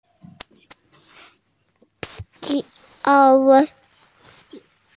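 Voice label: "an1 wei4", Mandarin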